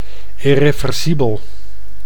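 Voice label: "irreversibel", Dutch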